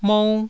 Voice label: "mou1", Taiwanese

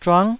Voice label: "zhuang9", taiwanese

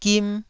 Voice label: "gim1", Taiwanese syllable

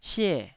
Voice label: "sie4", Taiwanese

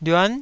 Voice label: "lyuan", Taiwanese

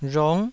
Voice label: "rhong9", Taiwanese

